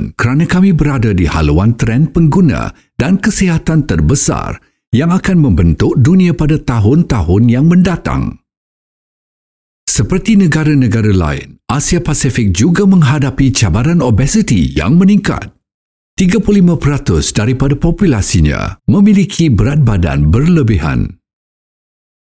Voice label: Malay Nutrition; Herbalife Nutrition

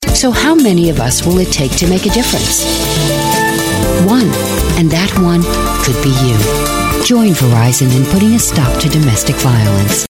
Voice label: US English Commercial; Verizon

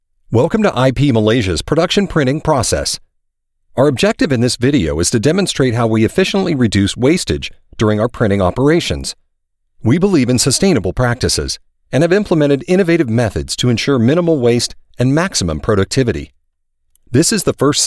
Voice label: US English Explainer

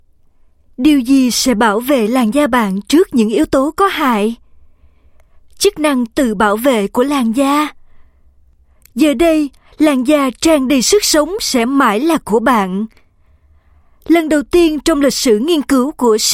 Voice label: Vietnamese F South

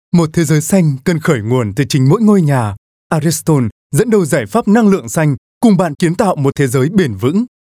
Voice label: Vietnamese North male; Ariston

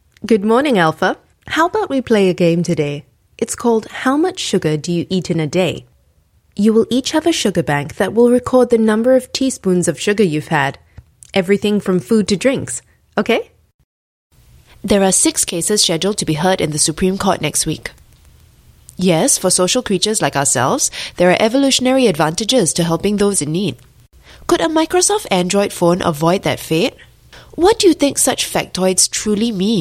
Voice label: Asian English Character Voices

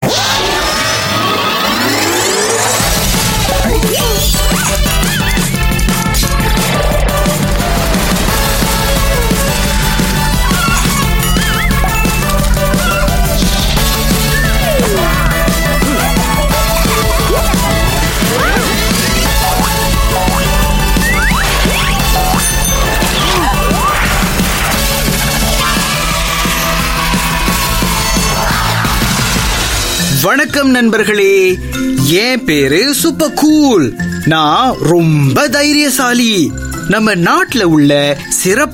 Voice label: Tamil SG Super Cool Pilot

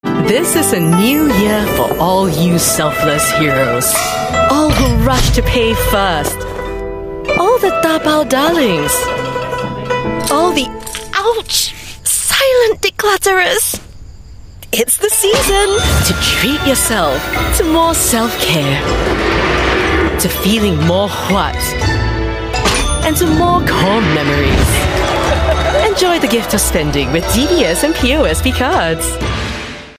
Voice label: Asian English; Celebrate CNY w DBS

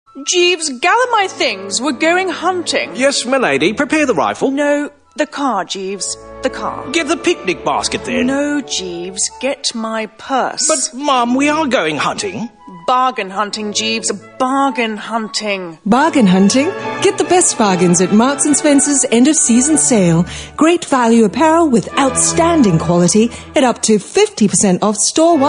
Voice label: Asian English CHARACTER OF THE BUTLER

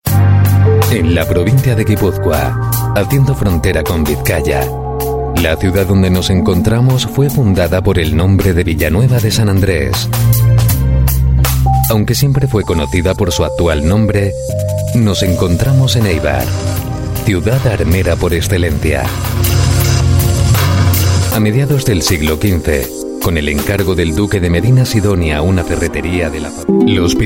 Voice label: Euro Spanish Male Documentary

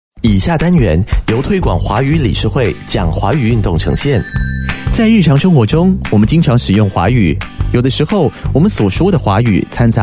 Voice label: Mandarin China Ad

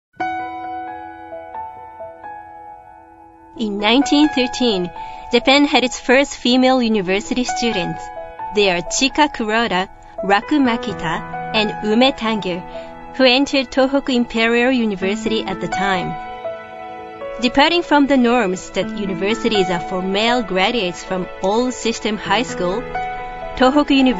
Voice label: Japanese English F

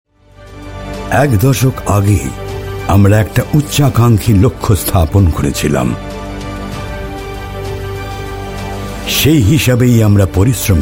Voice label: Bengali, India Male; Layland

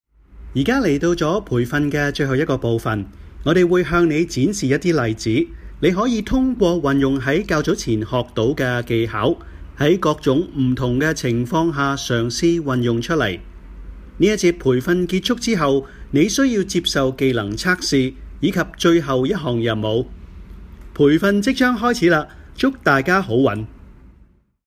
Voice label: Cantonese Instruction voice-over

